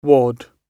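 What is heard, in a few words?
"wad", British English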